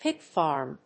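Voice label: "pig farm", English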